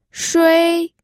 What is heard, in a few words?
"shui1", pinyin